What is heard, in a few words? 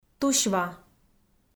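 "тусьва", Komi, female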